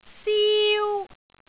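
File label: "siu1", Cantonese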